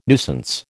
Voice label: "nuisance", American